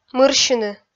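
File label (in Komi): "мырсьыны", Komi, female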